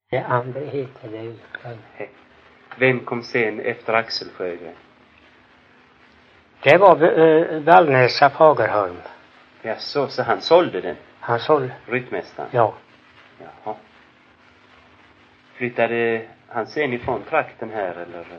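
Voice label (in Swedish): Ingatorp Småland, dialekter; Folkminnen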